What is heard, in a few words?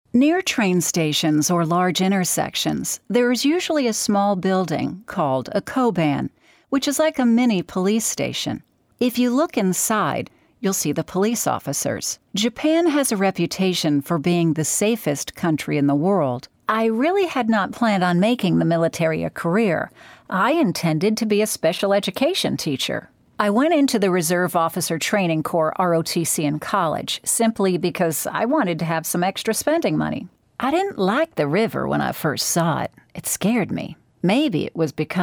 Audiobooks lightly proc